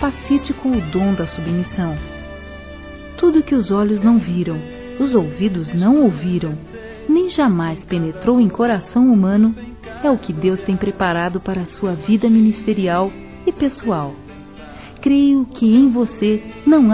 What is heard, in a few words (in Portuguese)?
ANIV. PASTORA F, Telemensagens, Gospel, fem; Telemensagens 2017